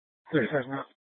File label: Mesa PD Central Dispatch, Scanner